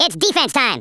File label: "runaway", US Sports